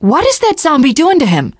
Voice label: teengirl, voice; Left 4 Dead 2